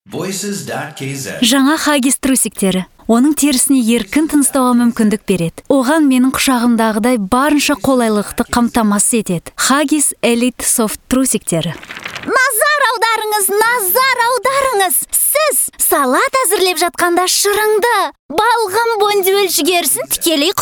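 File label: kazakh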